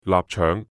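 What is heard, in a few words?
"臘腸", Cantonese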